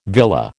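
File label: "villa", American English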